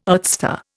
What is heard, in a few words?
"earthstar", Indian